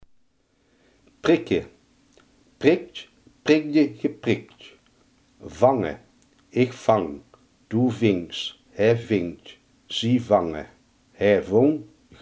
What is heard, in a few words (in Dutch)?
"vangen", limburgse